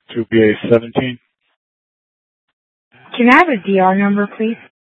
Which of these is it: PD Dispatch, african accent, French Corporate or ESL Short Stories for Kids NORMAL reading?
PD Dispatch